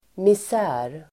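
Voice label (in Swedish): "misär", Swedish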